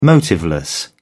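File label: "motiveless", British English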